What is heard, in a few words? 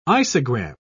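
"isogram", British English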